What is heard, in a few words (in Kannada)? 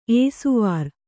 "ಏಸುವಾರ್", Kannada